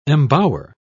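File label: "embower", British English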